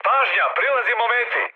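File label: Serbian near waypoint mood high; War Thunder; speech srb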